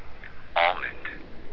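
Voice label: "almond", USA, male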